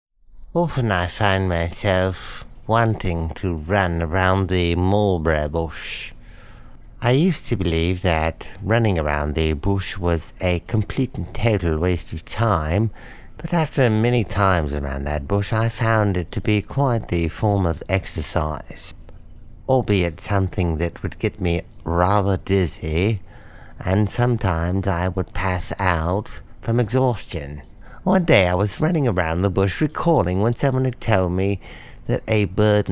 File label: British